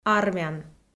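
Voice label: "армян", Komi, female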